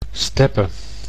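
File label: "steppe", Dutch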